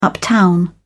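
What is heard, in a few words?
"uptown", British English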